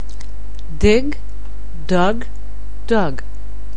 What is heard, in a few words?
"dig", anglais